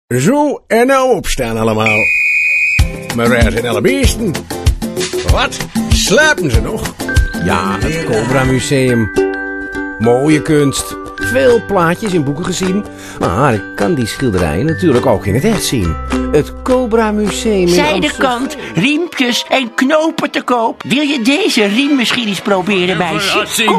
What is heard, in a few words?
dialect